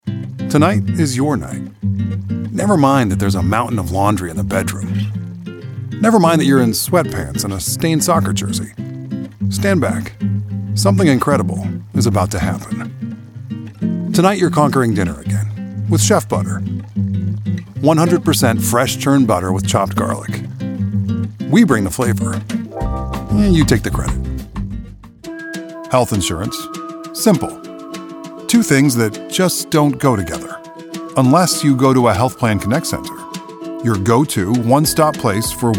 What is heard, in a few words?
Commercial